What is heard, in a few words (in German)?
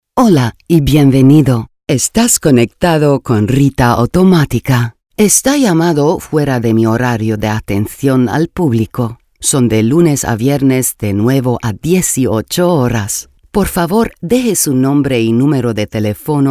Telefonansage ES